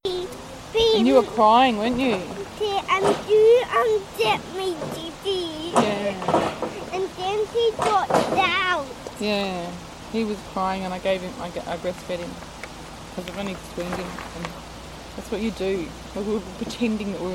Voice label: Darwin Australia 40s Female

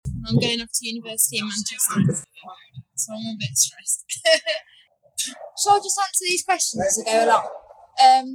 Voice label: Birmingham to Plymouth Female

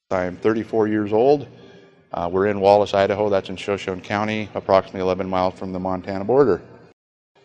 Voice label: Wallace Idaho White Male Age 34

